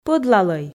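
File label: "пӧдлалӧй" Komi, female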